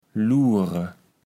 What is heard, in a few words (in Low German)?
"luure", kaevels platt